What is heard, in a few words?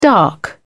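"dark", British English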